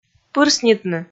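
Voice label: "пырснитны", Komi, female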